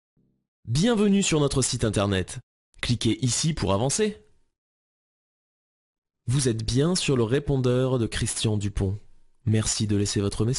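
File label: French, male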